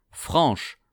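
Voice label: "franche", French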